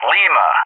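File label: "Lima", English; JTAC; Alphabet; DCS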